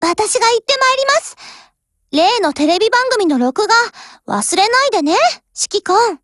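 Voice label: OPERATION BEGIN Japanese, Voice; GF Alarm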